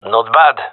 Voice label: compliment, gendarmerie male; vo